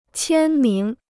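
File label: "签名", Chinese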